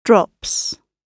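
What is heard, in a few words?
"drops", British English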